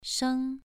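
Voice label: "sheng" Mandarin Chinese, second tone, pronunciation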